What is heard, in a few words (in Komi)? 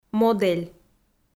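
"модель", Komi, female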